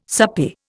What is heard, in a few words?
"soupy", Indian